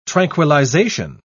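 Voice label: "tranquillization", British English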